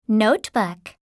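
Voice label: "notebook", English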